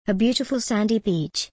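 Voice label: British English, a beautiful sandy beach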